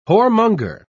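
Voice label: "whoremonger", British English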